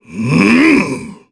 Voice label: Casting, jp; Ricardo; kingsraid; voices heroes